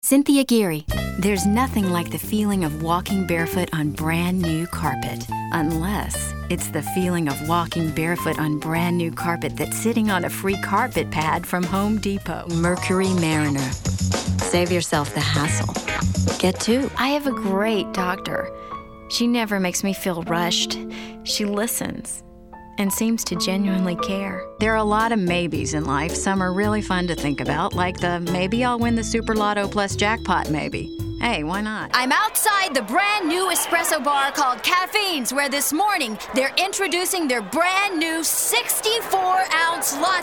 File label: Commercial; voice demo production samples